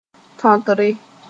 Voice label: "tõtre", moore